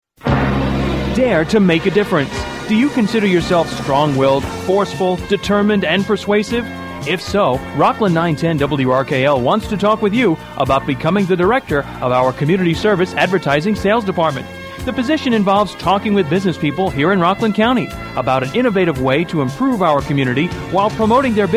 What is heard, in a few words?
TELEMARKETING AD; WRKL